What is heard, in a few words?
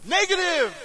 US negative; player; Source Engine; dod